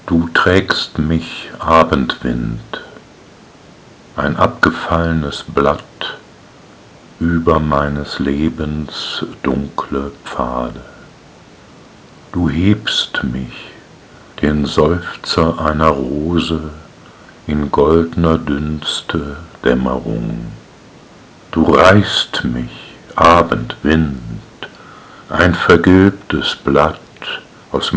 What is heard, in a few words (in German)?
Die weibliche Stimme